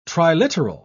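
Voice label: "triliteral", British English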